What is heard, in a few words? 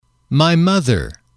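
"my mother", English, male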